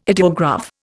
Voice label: "ideograph", Indian

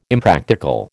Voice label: "Impractical", American

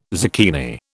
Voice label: "zucchini", British

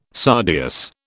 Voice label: "sardius", British

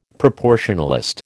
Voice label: "proportionalist", American

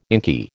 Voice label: "inky", American

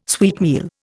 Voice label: "sweetmeal", Indian

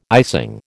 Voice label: "icing", American

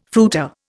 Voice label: "fruiter", Indian

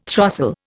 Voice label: "throstle", Indian

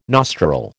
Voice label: "nostril", American

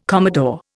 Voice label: "commodore", Indian

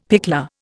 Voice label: "pickler", Indian; Dictionary

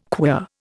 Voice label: "queer", Indian

